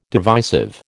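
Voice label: "divisive", American English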